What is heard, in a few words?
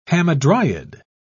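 "hamadryad", British English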